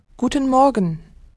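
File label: "Guten Morgen", German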